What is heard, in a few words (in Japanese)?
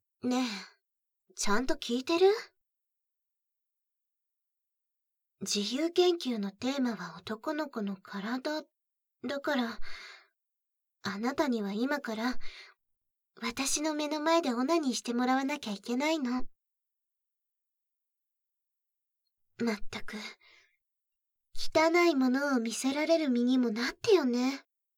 耳舐め研究されるオナサポボイス; 三女